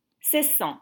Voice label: "Cessant", French